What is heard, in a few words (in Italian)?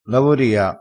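"lavoria", vocabolario dialettale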